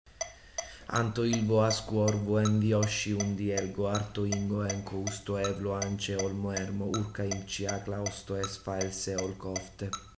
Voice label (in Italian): difficoltà di articolazione